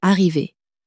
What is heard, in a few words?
"arriver", french